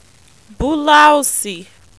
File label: "bulaosi", Yoruba